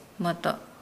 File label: Nukuoro; unripe